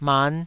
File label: "man5", Taiwanese